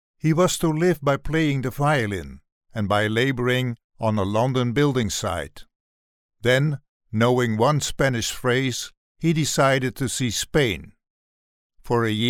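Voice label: Dutch, male; dutch voice over talent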